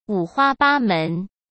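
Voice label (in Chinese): "五花八门", Mandarin Chinese; chinese flashcards; hsk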